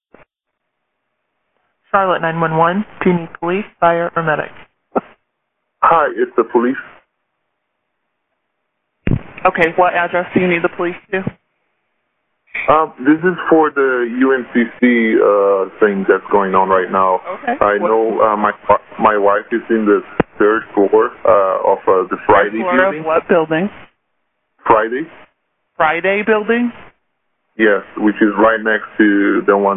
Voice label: 911 call; 9201 University City Bv